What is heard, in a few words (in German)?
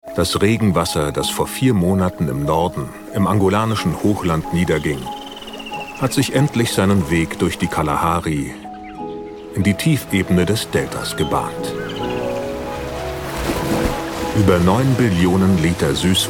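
kommentar überleben im paradies; bbc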